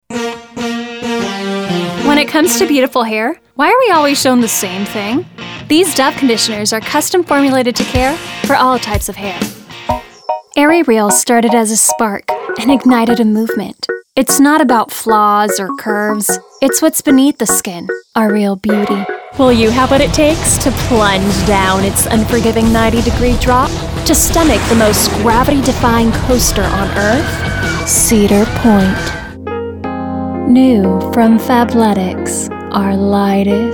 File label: Commercial English